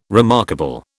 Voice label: "remarkable", British